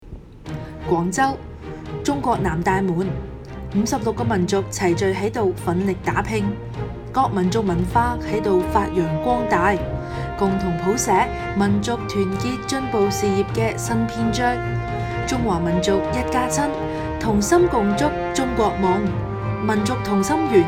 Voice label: 粤语配音; 民族同心圆节目开播

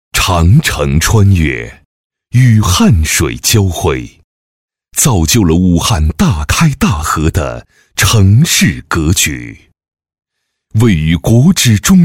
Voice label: 男 专题 城市宣传片; 武汉

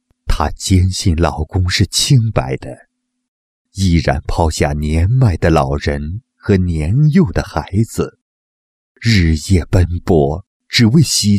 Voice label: 男声 律师; 国语男声配音; 经济录音

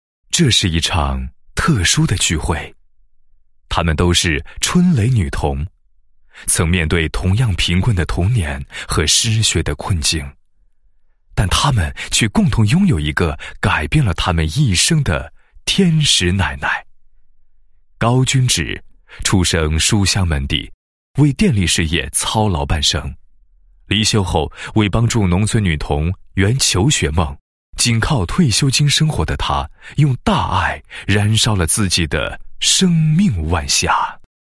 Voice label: 男 人物, 配音; 春蕾女童的天使奶奶

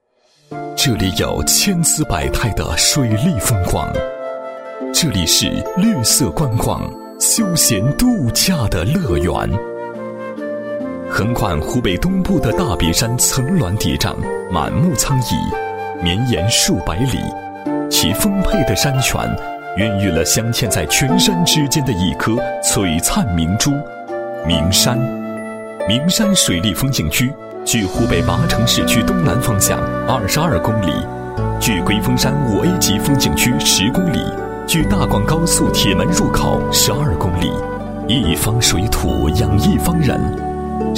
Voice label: 男 旅游宣传, 风景区宣传解说词

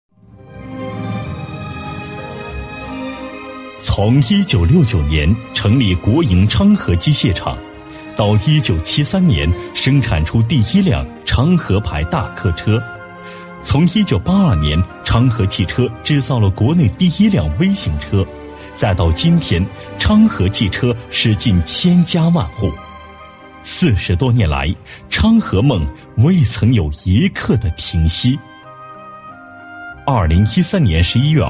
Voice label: 男 专题, 国语男声配音 大气浑厚; 国营昌河汽车

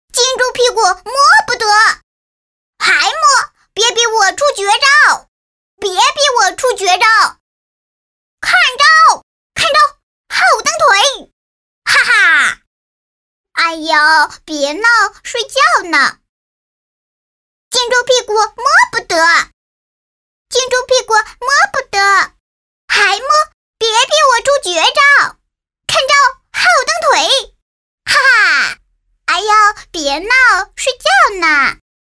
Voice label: 卡通玩具, 童声, 国语女声配音

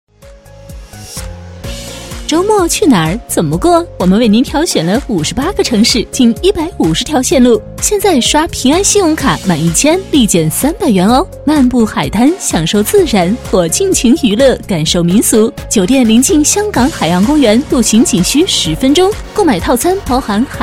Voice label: 女 广告 信用卡, 国语女声配音; 平安信用卡

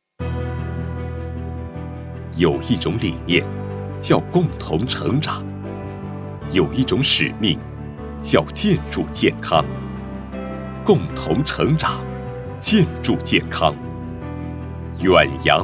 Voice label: 男, 企业宣传, 稳重, 大气

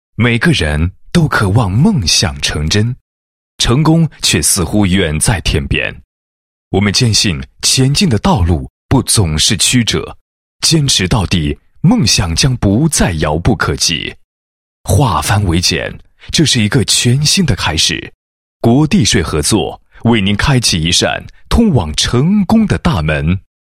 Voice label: 男 宣传广告 形象宣传; 国家税务局

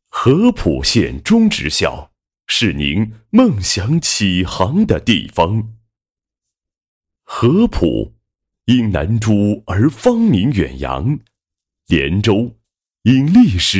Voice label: 男, 学校宣传; 合浦县中等职业技术学校

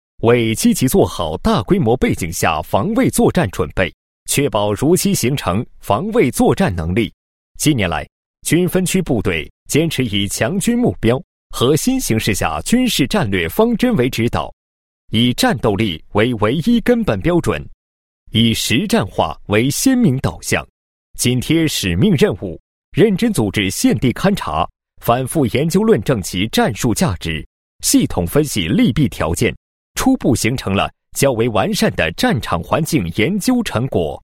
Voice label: 男 部队题材, 国语男声配音 磁性; 男98磁性专题

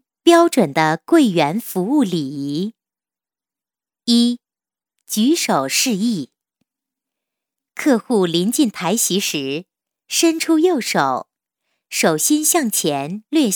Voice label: 女53 课件 标准的柜员服务礼仪, 国语女声配音; 省台女配

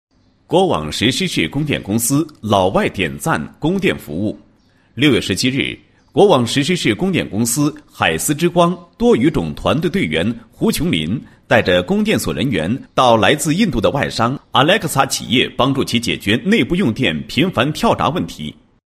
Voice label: 男 新闻, 高音; 国语男声配音; 经济型

